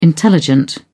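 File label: "intelligent", British English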